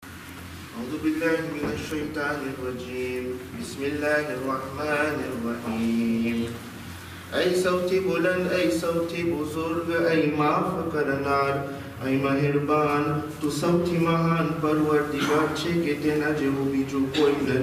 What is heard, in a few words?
Dua Mahe Ramzane recite Gujrati; khojaradio